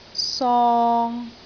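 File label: "song3", Cantonese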